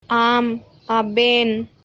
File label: "Aam/ aaben", Ho language; You; (respect)